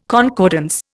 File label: "concordance", Indian